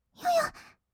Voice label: "よよっ？", kawaii voice; ASMR; 色々なワンショット

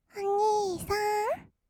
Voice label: お兄さん, kawaii voice; ASMR; 二人称

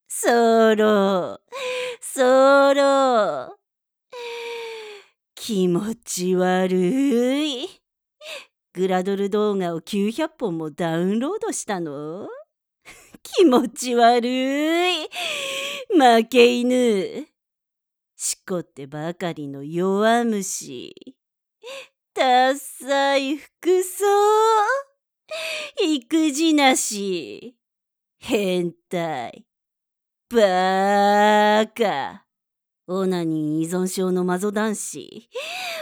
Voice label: アドリブ罵倒

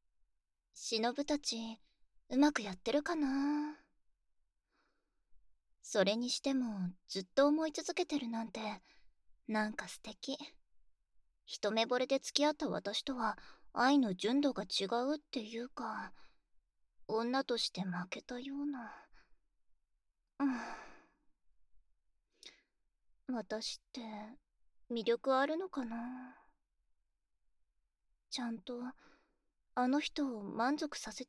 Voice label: SE、環境音無し; 嘘…これ…って

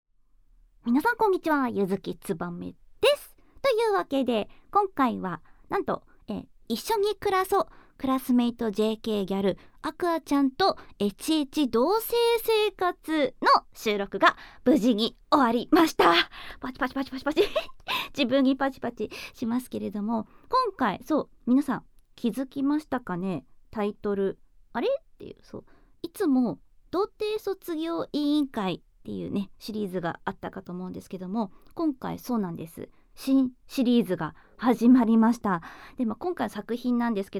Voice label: フリートーク

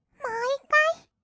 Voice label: "もう1回", kawaii voice; ASMR; 色々なワンショット

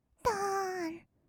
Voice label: "どーん", kawaii voice; 1200 kawaii voice!!; 色々なワンショット

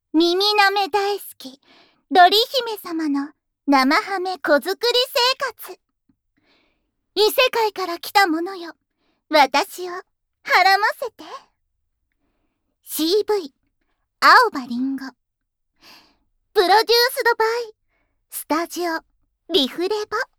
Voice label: タイトルコール, ASMR

